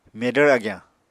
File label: "medvr agyañ", Apatani